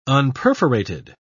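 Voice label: "unperforated", British English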